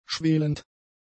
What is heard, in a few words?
"schwelend", German; adjectives